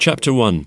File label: chapter one male; numbers and letters